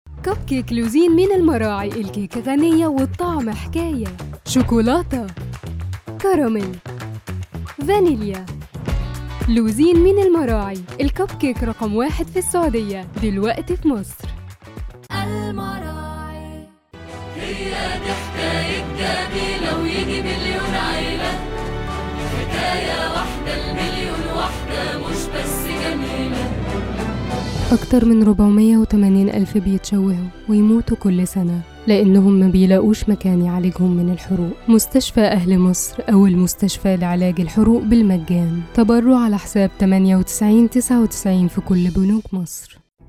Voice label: commercial; الدعايا و الاعلان

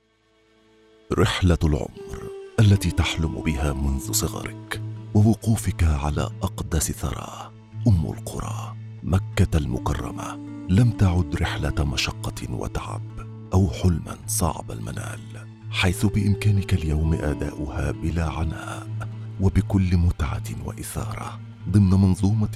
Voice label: Arabic MSA VO

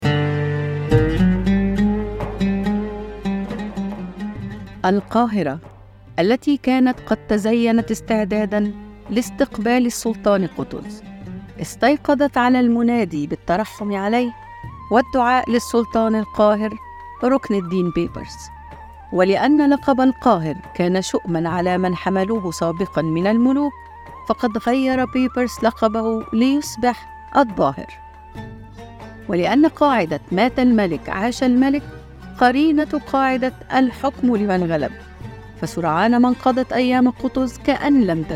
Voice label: Voice over audiobook

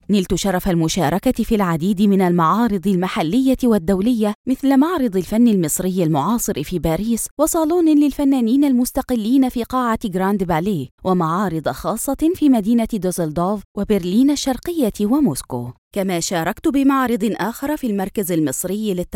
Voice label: Documentary Film Tv

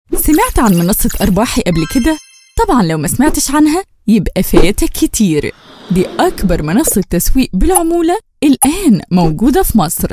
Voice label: Egyptian Dialect

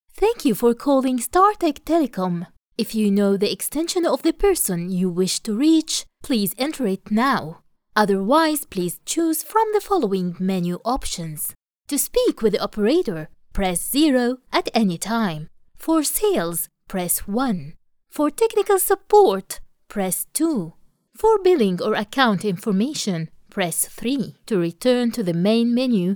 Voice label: IVR en

